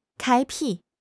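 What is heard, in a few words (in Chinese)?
"开辟", Chinese; HSK6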